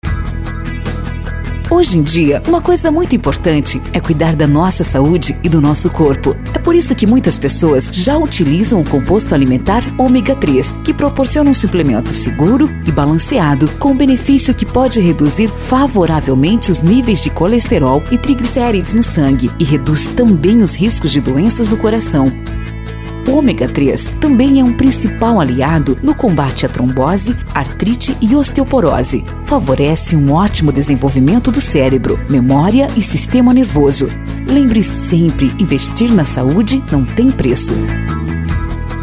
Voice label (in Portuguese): Spot; Omega 3